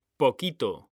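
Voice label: "poquito", Spanish, male; mekanta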